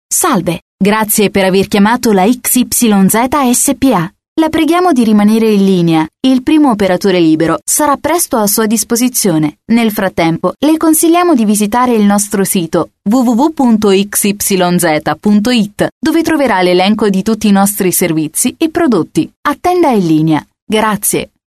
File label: ita